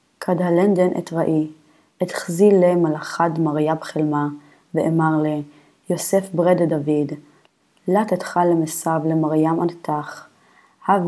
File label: Matthew Reading Practice, Aramaic